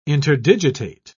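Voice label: "interdigitate", English, British English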